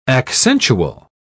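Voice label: "accentual", English, British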